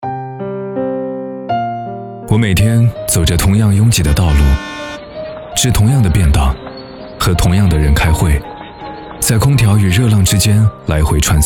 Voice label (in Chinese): 男 自然 讲述; 二郎山喇叭河专题